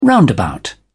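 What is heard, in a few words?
"roundabout", British English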